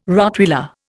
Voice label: "Rottweiler", Indian

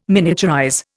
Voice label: "miniaturize", Indian

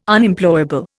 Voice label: "Unemployable", Indian

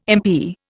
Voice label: "impi", Indian

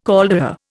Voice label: "caldera", Indian

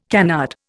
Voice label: "canard", Indian

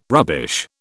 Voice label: "rubbish", British

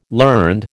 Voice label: "learned", American

